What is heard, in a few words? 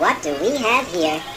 what do we have here, cartoon